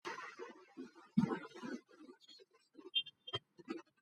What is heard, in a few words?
voice message